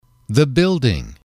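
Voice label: "building", male, English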